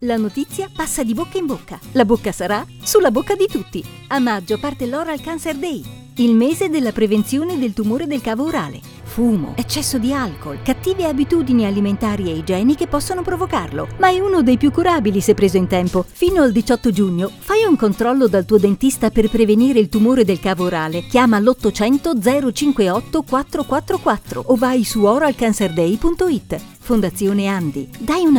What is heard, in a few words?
spot radio; Oral Cancer Day 2021